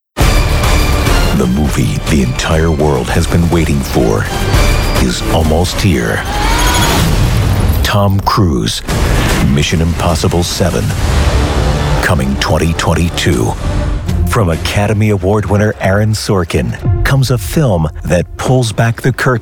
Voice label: trailers men

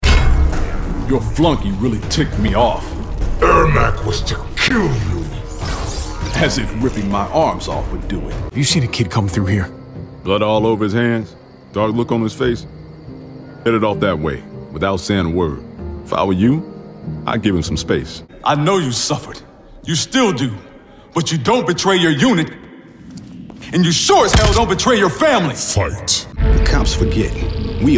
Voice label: animation men, voices men

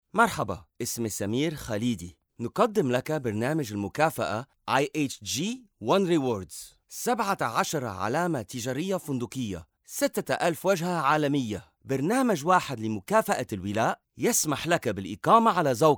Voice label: languages men arabic

